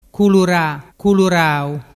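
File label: "culurarau", Vernazzese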